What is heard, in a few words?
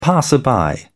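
"passer-by", British English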